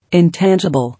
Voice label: "Intangible", English